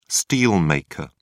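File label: "steelmaker", British English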